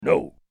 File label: "No!" Cartoon